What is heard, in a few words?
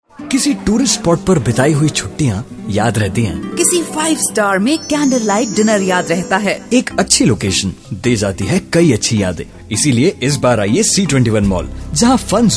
radio commercial; C-21 Mall